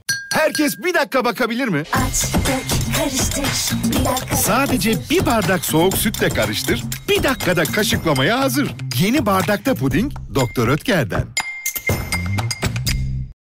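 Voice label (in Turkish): enerjik esprili, seslendirme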